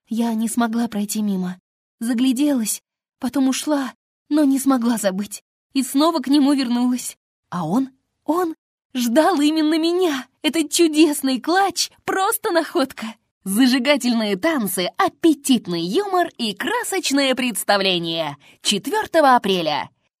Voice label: locutora rusa russian voice actress

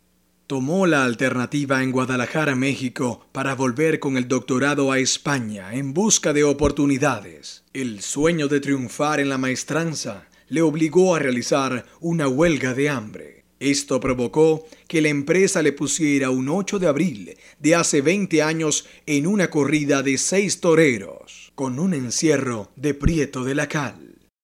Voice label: locutor venezuela venezuelan voiceover; locutor de Venezuela

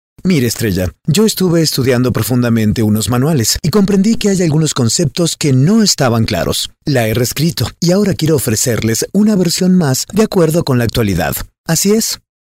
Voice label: locutor mexicano mexican voiceover; Equipo de locutores de doblaje mexicanos. Grupo B

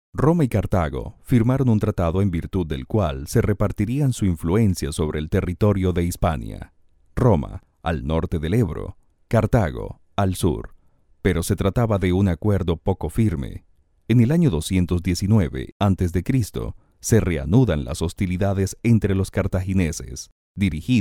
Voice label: locutor venezolano venezuelan voiceover

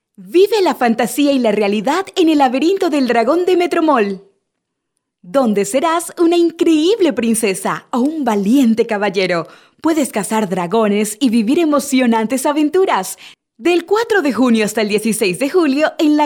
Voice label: locutora panama panamanian voiceover; locutora panameña

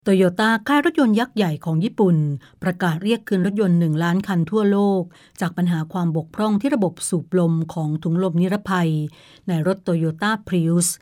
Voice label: thai female voiceover locutora tailandia; locutora tailandesa; locutores tailandeses

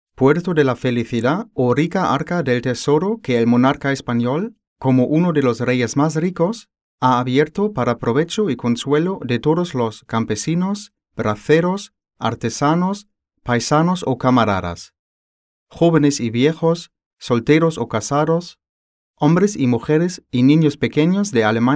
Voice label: locutores swedish spanish accent voiceovers; imitación de hablante sueco para spot

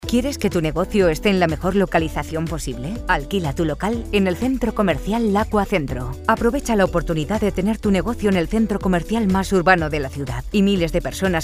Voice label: locutora spanish voiceover; Locutores latinoamericanos de documentales

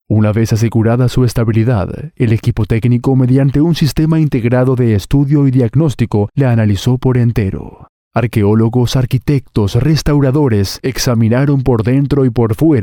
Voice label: locutor uruguayo uruguayan voiceover

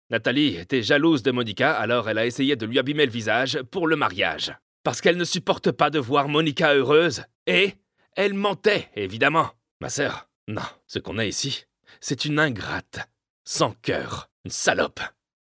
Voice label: locutor frances french voiceover; locutores franceses

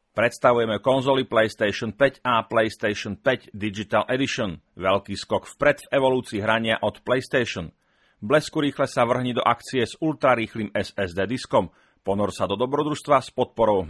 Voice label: slovakian voiceover locutor eslovaco

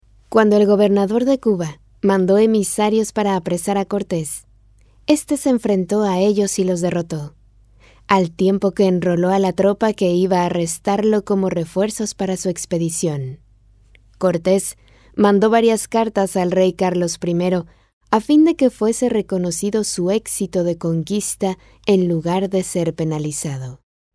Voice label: locutora mexico mexican voiceover

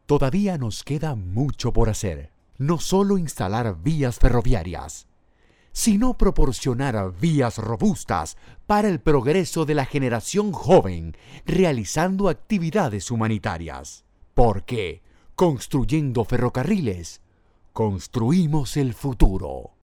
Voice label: locutor panama panamanian voiceover; locutor panameño

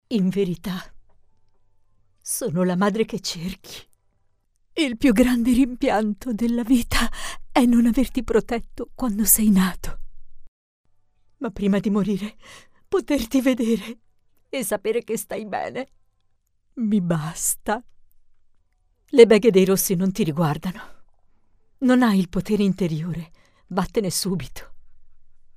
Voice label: locutora italiana, Italian voiceover; Italian voice artist, locutora italiana de doblaje

